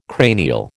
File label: "cranial", American